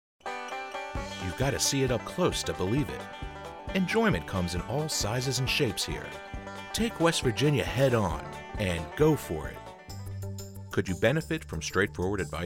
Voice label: commercial